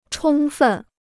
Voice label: "充分", Chinese

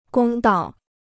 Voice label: "公道", Chinese

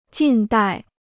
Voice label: "近代", Chinese

